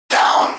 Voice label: VOICEFX TEKNO 140; Techno2

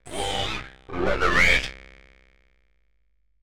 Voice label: ELECTRO MALE 140 A, Vocals

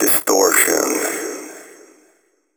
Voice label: techno male 140; Vocals

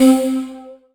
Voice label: VOX, VOCODER; VOCODER 1

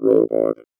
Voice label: VOICEFX ELCTR 140; Techno2

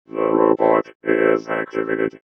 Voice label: VOICEFX ELCTR 140; Techno2

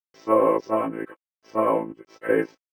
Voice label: VOICEFX ELCTR 140; Techno2

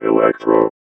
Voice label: VOICEFX ELCTR 140; Techno2

